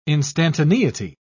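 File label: "instantaneity", British English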